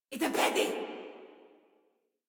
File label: Attack Female; Warframe; Modular Corpus Female